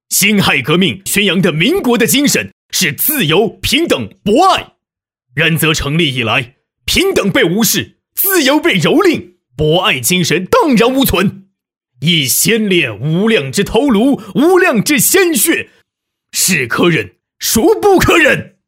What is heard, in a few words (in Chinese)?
男 角色 革命烈士; 年轻有力; 大气浑厚; 男61-角色【革命烈士】